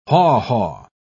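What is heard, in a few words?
"haw-haw", British English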